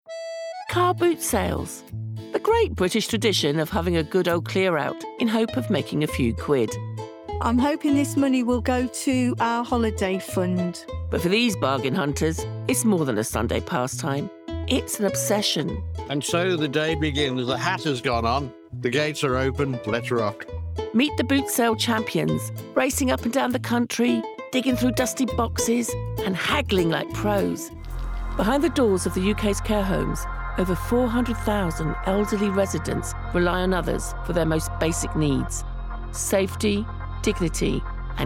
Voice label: Documentary Montage